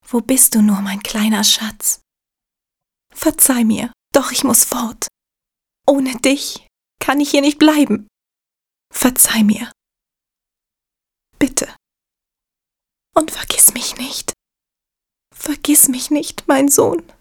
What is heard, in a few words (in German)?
Mutter Stimmprobe; The Night of the Rabbit